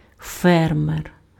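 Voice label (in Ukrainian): "фермер", Ukrainian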